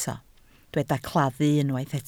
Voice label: "claddu", Welsh